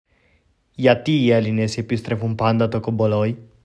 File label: greek prompt; aya expanse